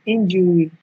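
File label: "injury", Nigerian Pidgin, female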